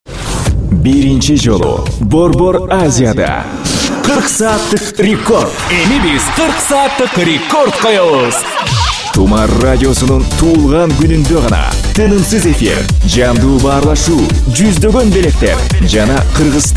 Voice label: Kirgizca Erkek; seslendirme

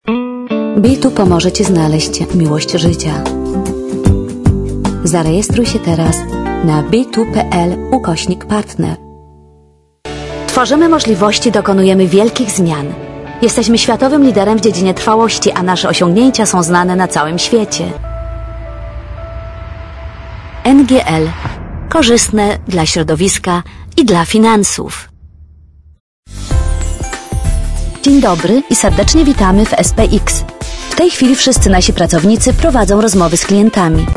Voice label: Lehce Kadin, seslendirme; kadin sesler

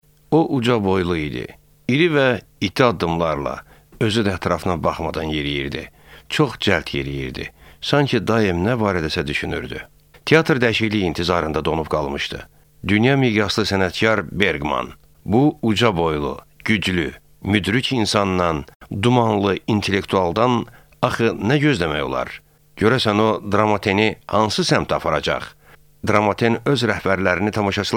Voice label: Azerice Erkek; seslendirme

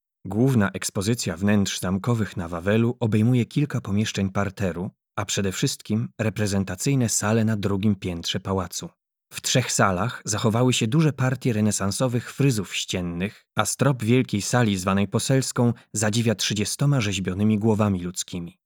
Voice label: Lehce Erkek, seslendirme; erkek sesler

